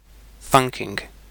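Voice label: "thunking", British English; pronunciations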